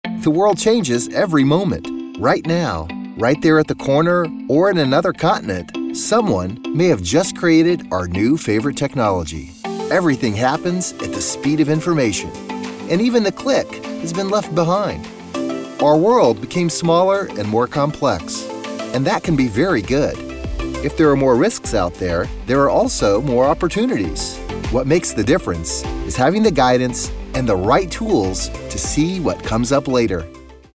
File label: Natural corporate